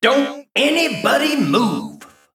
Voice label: Male Mech After Freezing

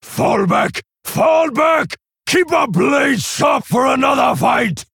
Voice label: Male Orc; Samuro; Kurtrus

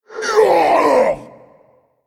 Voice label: Male Overfiend Death

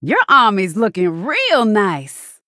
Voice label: Female Human Recruit Large Minion

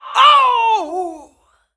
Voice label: Female Tolvir Death

